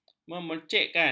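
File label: "menggemercikkan", Malay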